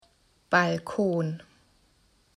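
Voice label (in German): "Balkon", German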